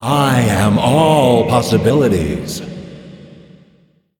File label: Male Constellar Play